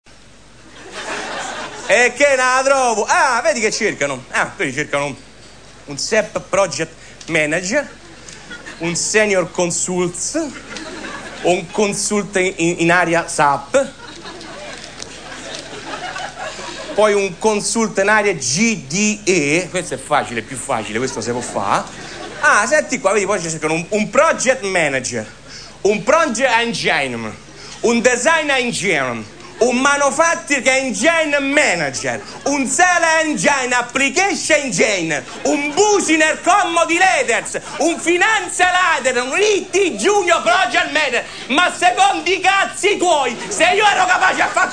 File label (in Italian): Annuncio